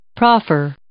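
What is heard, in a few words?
"proffer", English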